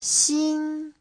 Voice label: "xin1", Chinese; (tính từ) mới; 新